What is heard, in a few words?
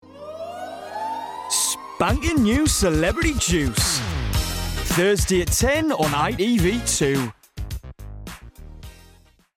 Promo; Celebrity Juice